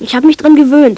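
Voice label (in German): "gewohnt", German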